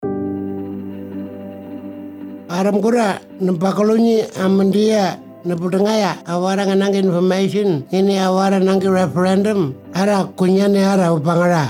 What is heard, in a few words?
Tiwi, Radio mix; indigenous; AEC Misinfo